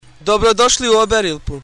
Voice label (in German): kroatisch